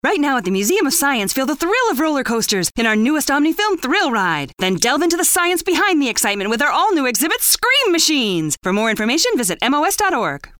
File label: ADV Spot